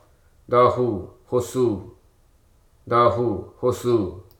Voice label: "identity", Hruso

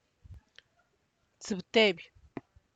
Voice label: eleven, Santiago Matatlán Zapotec; (Spanish) once

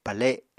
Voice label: "pale", Apatani

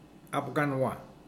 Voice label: before, Mokilese

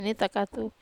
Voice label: "neta katu", Wamesa

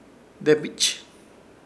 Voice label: "Debich", Ishir Chamacoco; duro o caro

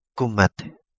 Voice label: Kapingamarangi; wooden Bowl

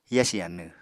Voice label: "yasi anw", Apatani

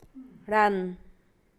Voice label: see, San Lucas Quiaviní Zapotec; ran; ver

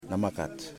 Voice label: Feather, yimas

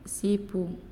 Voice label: "Ash", Naasioi; unitech

